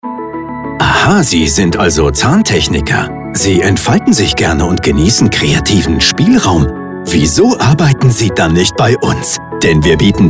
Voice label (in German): Spot Musik; Fraeszentrum Lahr